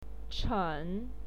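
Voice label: "chen", Mandarin Chinese, third tone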